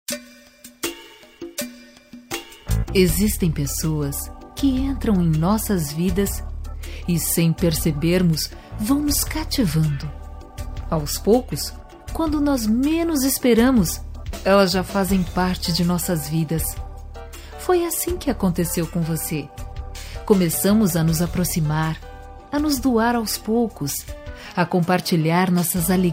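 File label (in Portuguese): Evangélica Aniversário de Amigo, female, telemensagens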